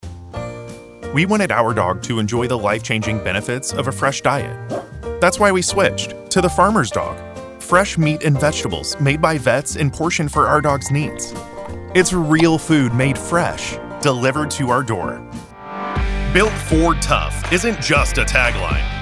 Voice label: commercial